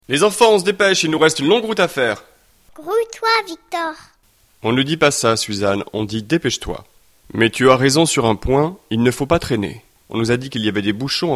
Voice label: dialogue, French